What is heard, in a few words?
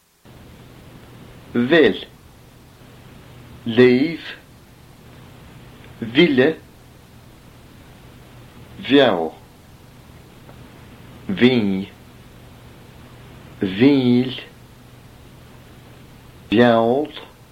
fricatives